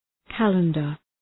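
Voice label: "calendar", English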